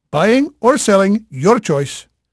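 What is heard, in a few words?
Portuguese male, voice; Pirates Of The Caribbean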